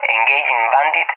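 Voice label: "engaging bandit", English, Spain; Messages; DCS; Allied Flight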